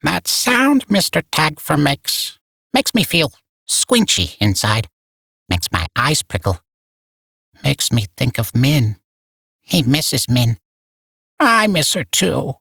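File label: Barks Bird, English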